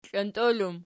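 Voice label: "klontol" plural, Archi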